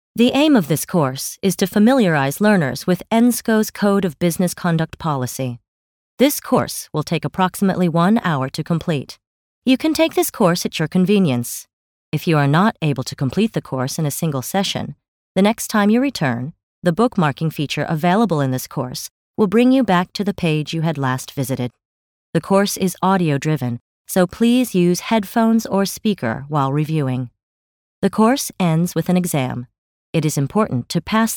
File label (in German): Nachvertonung Film Sprecherin Englisch US